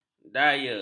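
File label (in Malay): "daya", Malay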